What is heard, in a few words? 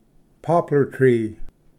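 "poplar tree", English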